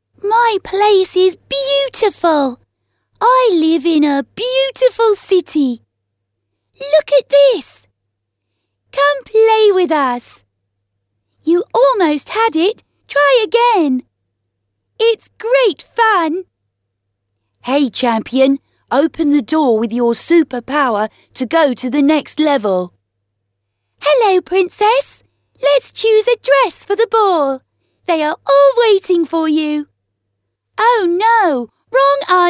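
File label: British English female children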